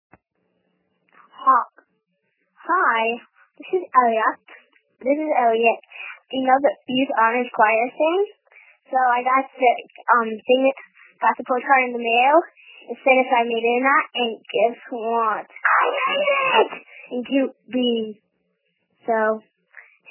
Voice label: Voice Message